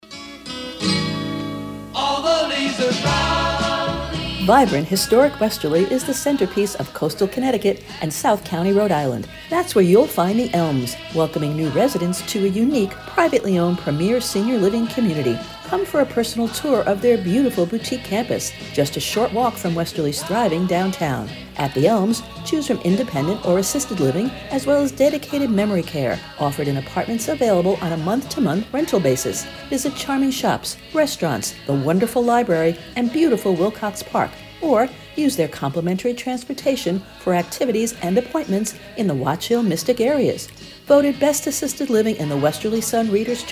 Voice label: radio ad